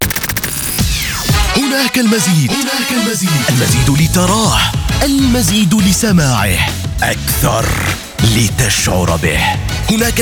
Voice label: Commercial Upbeat Energetic Announcer Standard Arabic; AKG